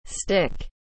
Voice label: "stick", English